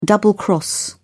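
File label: "double cross", British English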